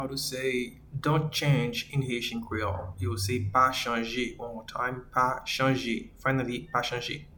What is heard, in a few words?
"Don't change" in Haitian Creole, "Pa chanje"